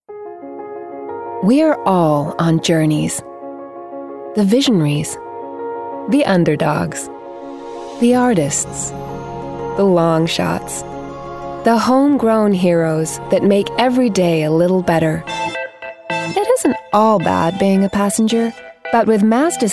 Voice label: Commercial Showreel US